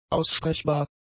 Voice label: "aussprechbar", German; adjectives